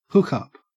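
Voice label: "hookup", Australian English; pronunciations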